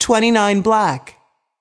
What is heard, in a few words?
voice over, roulette; frenchroulette3